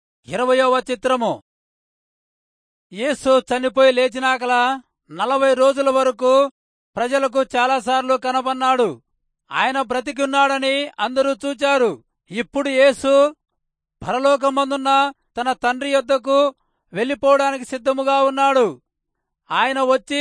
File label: telugu rayalseema; good news